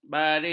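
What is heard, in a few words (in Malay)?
"baring", Malay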